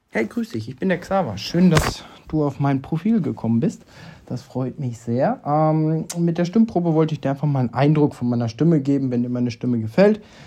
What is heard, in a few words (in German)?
Escort Mann Audiovorstellung Deutsch